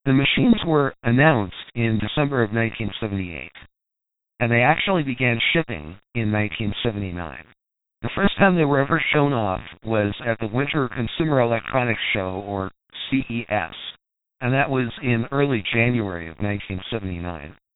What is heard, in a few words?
4KHz LPF mono, Speech Sidecar; IBM; PCjr; BlueWizard